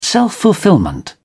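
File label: "self fulfilment", British English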